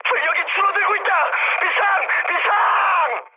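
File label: Korean damaged engine mood high; War Thunder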